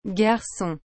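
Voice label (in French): "garcon", French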